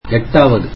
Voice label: tamil eighth